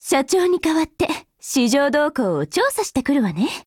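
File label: operation begin, Japanese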